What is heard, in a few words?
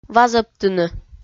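"вазӧбтыны", Komi, female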